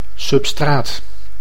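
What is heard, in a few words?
"substraat", Dutch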